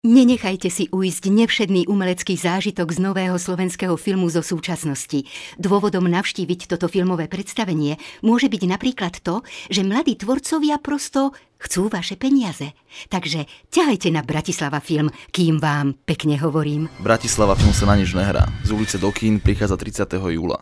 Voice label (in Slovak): radio